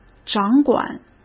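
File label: "掌管", Mandarin Chinese; chinese-flashcards; hsk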